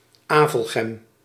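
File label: "Avelgem", Dutch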